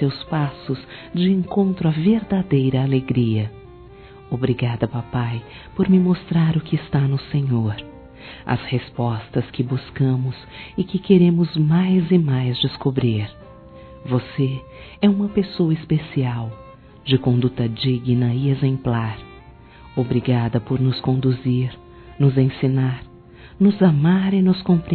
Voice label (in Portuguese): EVANGELICO F, Telemensagens Dia dos Pais; Telemensagens 2017